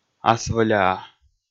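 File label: "асвӧляа", Komi, male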